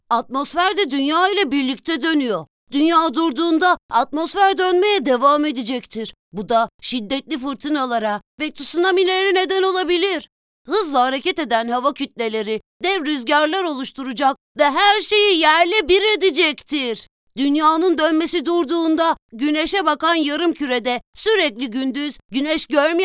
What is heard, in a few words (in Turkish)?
erkek çocuk sesi